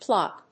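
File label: "plop", English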